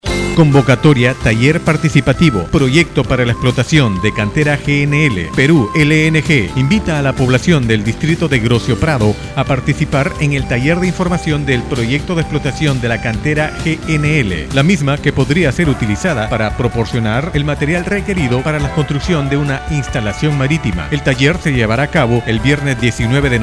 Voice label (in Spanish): Anuncio por radio; Peru LNG; Chincha